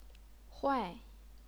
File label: "huai4", Chinese pinyin